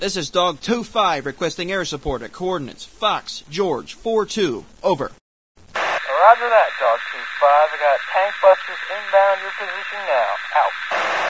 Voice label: radio call, ambience; Source Engine; dod